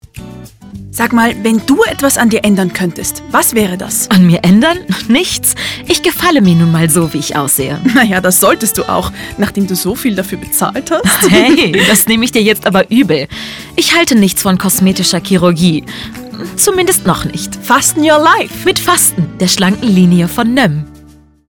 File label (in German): Radiospot (DE Dialog); „NÖM Fasten“